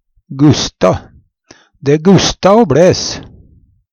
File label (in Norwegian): guste, Numedalsmål